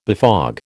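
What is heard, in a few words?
"befog", American English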